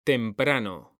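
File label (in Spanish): "temprano", Spanish, male; mekanta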